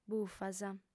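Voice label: "bufas", lingua sarda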